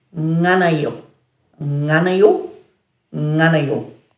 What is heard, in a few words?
"nganayu", Dhurga